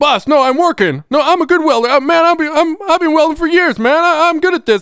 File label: threat; WELDER